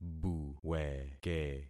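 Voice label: "buweke", concatenated syllables; Affixing experiment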